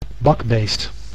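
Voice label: "bakbeest", Dutch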